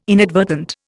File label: "inadvertent", Indian